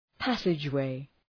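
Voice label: "passageway", English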